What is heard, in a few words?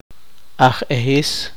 poqomchi